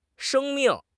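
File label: "sheng1 ming4", Mandarin Chinese; HSK4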